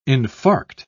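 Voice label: "infarct", British English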